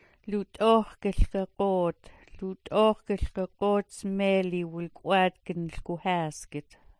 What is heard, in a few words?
"lut'ooxlgagoot", smalgyax